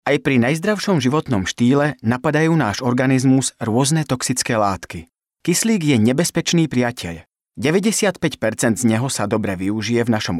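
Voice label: Male Slovak documentary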